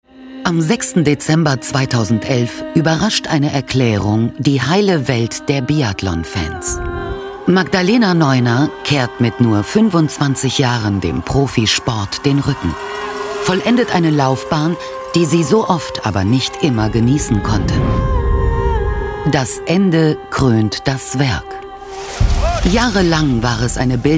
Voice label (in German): Doku; Magdalena Neuner; LOFT VOICES